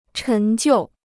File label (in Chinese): "陈旧", Chinese